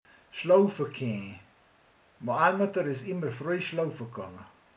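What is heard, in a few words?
"aludni megy", Hungarian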